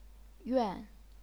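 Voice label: "yuan", chinese pinyin, fourth tone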